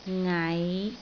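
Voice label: "ngai5", Cantonese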